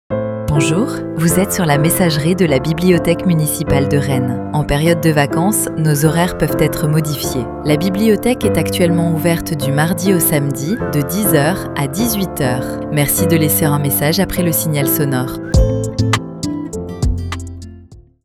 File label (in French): exemple repondeur personnalise